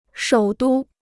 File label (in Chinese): "首都", Chinese